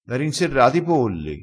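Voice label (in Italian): "rinserra", dialettale, crespinese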